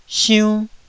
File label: "siunn1", Taiwanese syllable